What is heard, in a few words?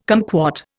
"cumquat", Indian